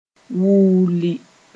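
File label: "wuuli", Mooré; jeer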